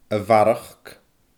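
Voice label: "a bharrachd", Scottish Gaelic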